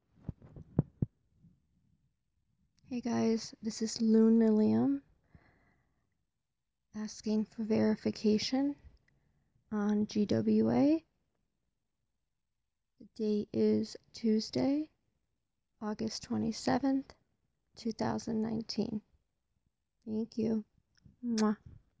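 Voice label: Verification, Female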